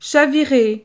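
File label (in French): "chavirer", French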